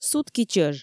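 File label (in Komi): сутки чӧж, Komi, female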